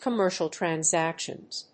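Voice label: "Commercial Transactions", English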